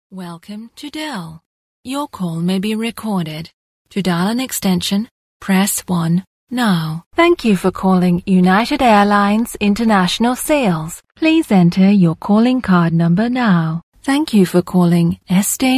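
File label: British English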